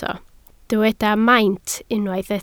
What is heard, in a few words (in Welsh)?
"maint", Welsh